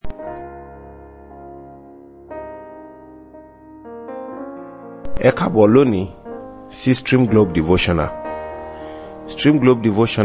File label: Devotional Audio Yoruba